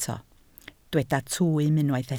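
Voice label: "twym" Welsh, spoken word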